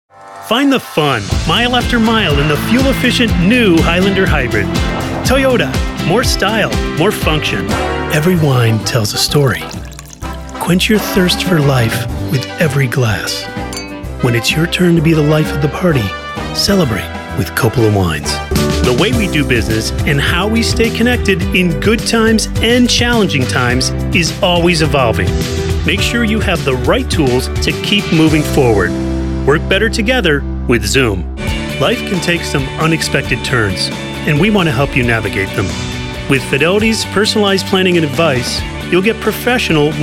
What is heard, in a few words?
Commercial, Voice over